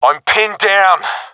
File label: pinned, professional epic; vo; Source Model Packs; Counter Strike Model Pack; CS2